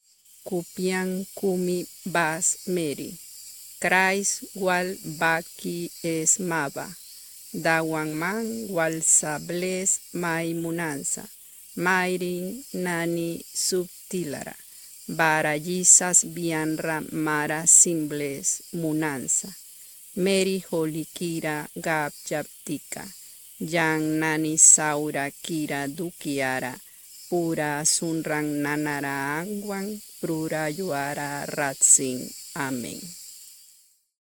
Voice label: ave maria Miskitu lento

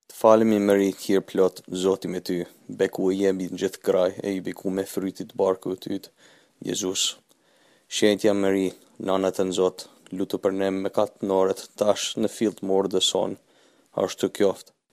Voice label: ave maria Albanes normal

